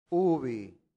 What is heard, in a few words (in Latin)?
"ubi", latin; santillana